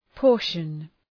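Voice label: "portion", English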